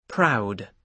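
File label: "proud", British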